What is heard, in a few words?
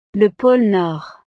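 "le pole nord", French; navidad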